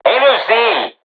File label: voice message art reloading, turkish